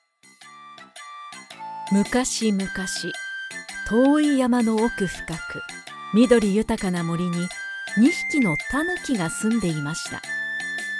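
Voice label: japanese audiobooks, Femelle; Livres audio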